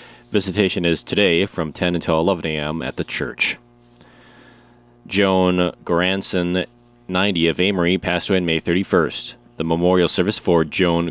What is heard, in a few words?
Funeral Announcements